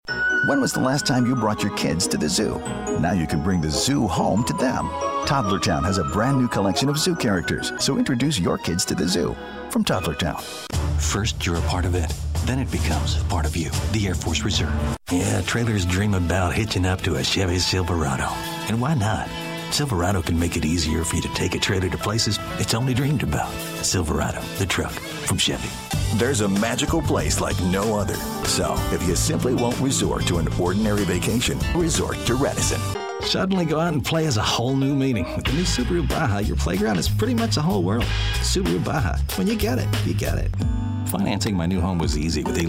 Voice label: Commercial Male; commercial voiceover